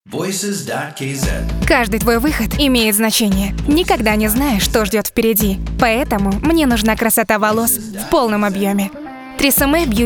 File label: ru